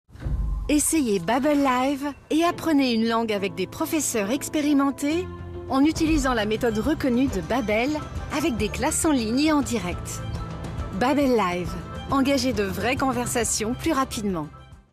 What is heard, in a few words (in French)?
Publicite; Babbel Live